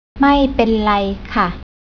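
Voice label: "mai pen", Thai, female; travel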